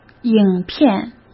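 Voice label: "影片", Mandarin Chinese; chinese-flashcards; hsk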